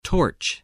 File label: "torch", spelling